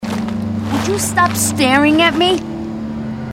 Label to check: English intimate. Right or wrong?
Wrong